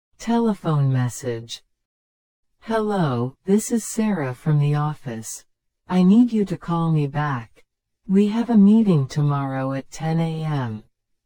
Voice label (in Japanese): トーク スロー